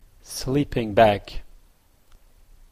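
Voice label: "sleeping bag", Canadian English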